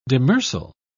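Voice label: "demersal", British English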